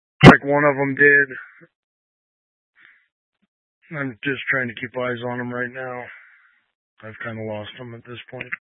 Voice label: Police Dispatch; Police 1 Dispatch